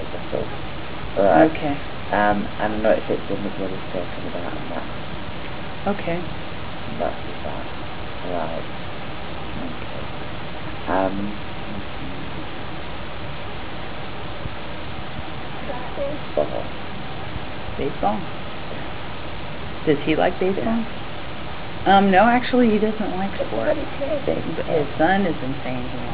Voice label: spirit voice